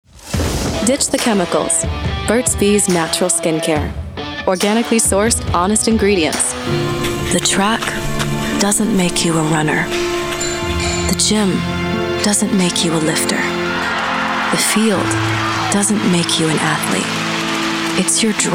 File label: Commercial